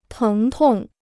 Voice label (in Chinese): "疼痛", Chinese